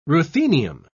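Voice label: "ruthenium", British English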